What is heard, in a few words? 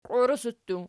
"qiuresi", Archi